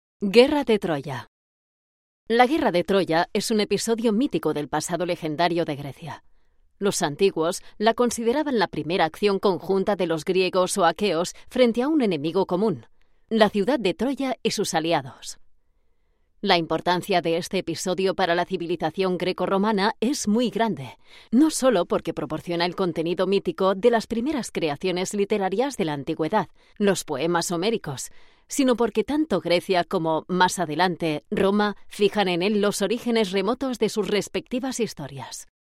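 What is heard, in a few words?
spanish explainer videos, female; professional Spanish and Catalan voice actor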